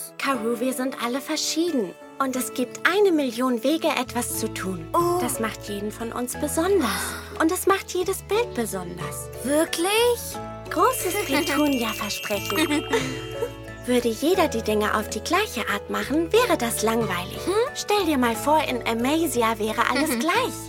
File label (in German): Synchron Launebaum